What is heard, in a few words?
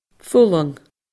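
"fulang", Scottish Gaelic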